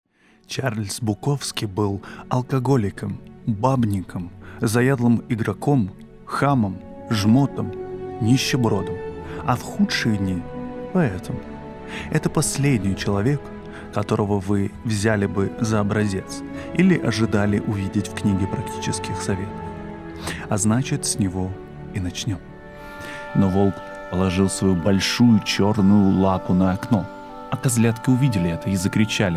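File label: voice reel Russian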